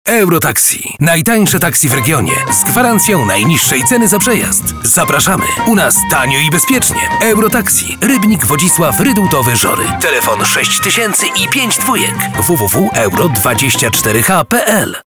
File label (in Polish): reklama radio